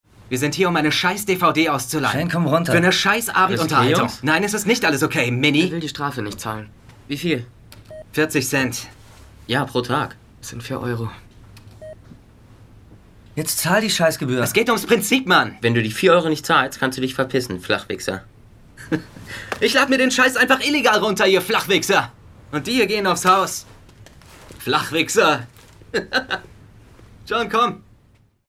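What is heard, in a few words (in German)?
Schauspiel, Aufgeregt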